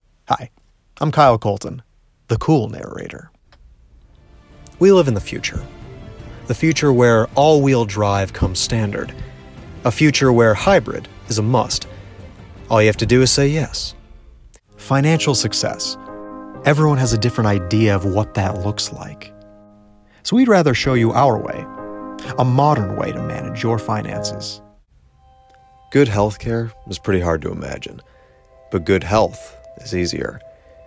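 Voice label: Commercial voice over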